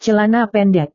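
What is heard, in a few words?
"celana pendek", Indonesian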